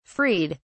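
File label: "freed", British English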